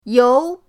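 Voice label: "you2", Chinese; pinyindct-open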